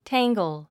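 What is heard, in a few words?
"tangle", English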